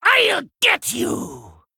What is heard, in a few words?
Male Kobold Attack